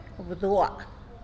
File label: "bdua" platano, tlacolula